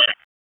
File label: Raleigh Police Downtown District Tac 1, P25 recordings